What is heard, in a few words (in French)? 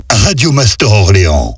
Accap medium, Voix off; Orléans; Radio Master Orléans